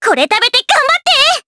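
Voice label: voice Skill, voices heroes, Japanese; kingsraid; voices heroes jp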